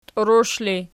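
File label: "tiurush" ergative, Archi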